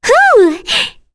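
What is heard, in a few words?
Vox Happy, English; Kirze; kingsraid; voices heroes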